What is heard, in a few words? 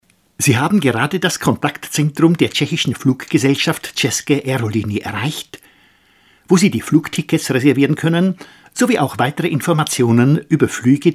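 Male German IVR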